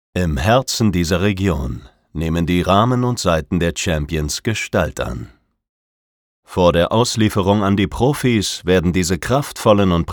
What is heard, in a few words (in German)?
Spot; Yonex